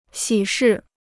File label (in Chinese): "喜事", Chinese